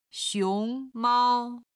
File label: "xiong2 mao1", chinese